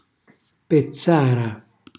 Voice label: "pezzara", Calabrese; cucina calabrese; Glossario

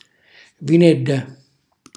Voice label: "vinella", calabrese; vicolo; cucinacalabrese; glossario

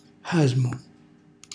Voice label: "xasmu", Calabrese; χàsmu; sbadiglio; cucinacalabrese; glossario

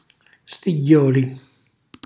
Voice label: "stigghjoli", Calabrese; cucina calabrese

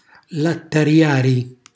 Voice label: "lattariari", Calabrese; cucina calabrese; Glossario

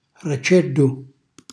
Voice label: "rcellu", Calabrese; uccello; cucina calabrese

